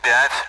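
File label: Speech, Russian, AWACS; Digits; DCS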